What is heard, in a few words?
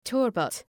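"turbot", English; {‘tɜ:rbət}; (Ουσιαστικό)● σύαξ● συάκι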